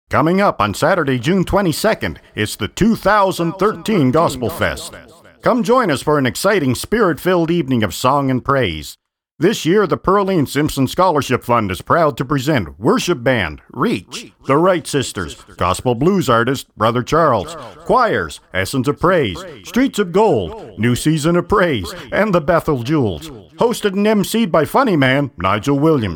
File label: voice over; gospel fest 2013